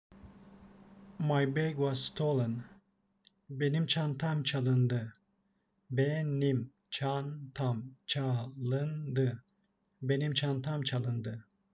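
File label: my bag was stolen, Turkish phrasebook; the talking turkish phrasebook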